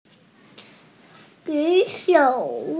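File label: "ju3 shou3", Mandarin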